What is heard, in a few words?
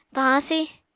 "tahañ si", Apatani